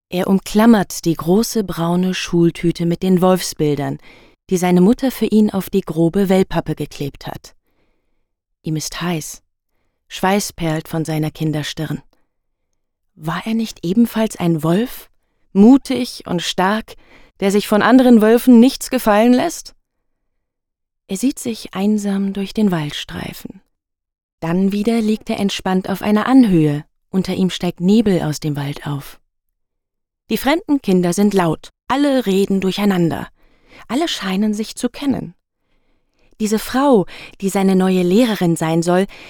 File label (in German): Erzaehlung; Der Wolf